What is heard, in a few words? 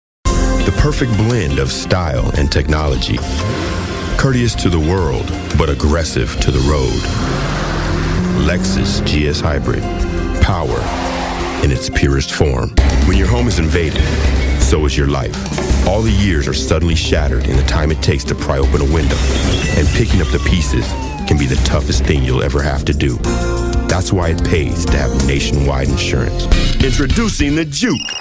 Shorter Commercial